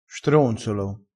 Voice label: "stronzolo", crespinese

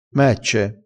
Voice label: "mecce", crespinese

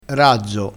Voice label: "razzo", crespinese

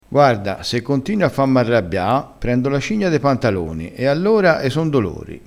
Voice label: "cigna", crespinese

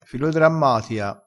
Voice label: "filodrammatia", crespinese

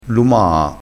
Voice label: "lumaa", crespinese, vocabolario dialettale

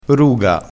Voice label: "ruga", crespinese

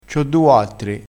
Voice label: "quattri", vocabolario dialettale

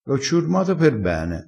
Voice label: "ciurma", crespinese

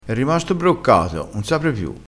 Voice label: "broccato", vocabolario dialettale

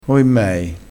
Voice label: "oimmei", crespinese

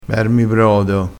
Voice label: "brodo", crespinese

